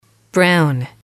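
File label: "brown", english, female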